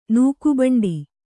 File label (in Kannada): "ನೂಕುಬಂಡಿ", Kannada